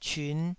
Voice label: "cyun5", Taiwanese syllable

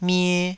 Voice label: "mie2", Taiwanese

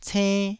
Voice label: "cenn1", taiwanese Syllables

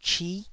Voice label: "chi9", Taiwanese

